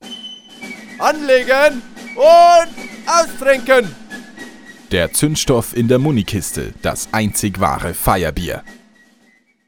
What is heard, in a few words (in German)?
Werbung Reminder; Irlbacher